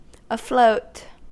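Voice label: "afloat", American English; pronunciations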